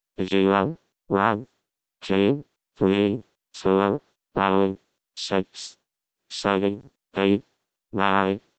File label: dnn vocoder